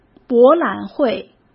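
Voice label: "博览会", Mandarin Chinese; chinese flashcards; hsk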